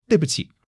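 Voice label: "duìbuqǐ", Chinese; 基础汉语40课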